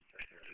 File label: Police Sheriff Dispatch